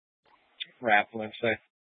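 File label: Police 1 Dispatch, Scanner audio recording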